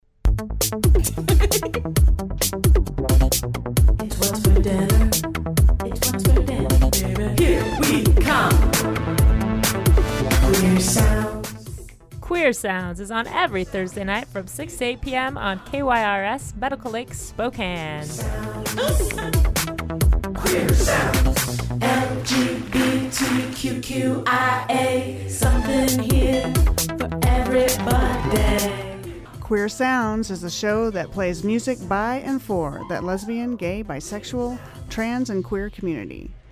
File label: promo; Queer Sounds